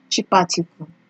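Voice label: "cheaparticle", Nigerian Pidgin, female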